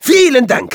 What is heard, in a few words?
thanks, de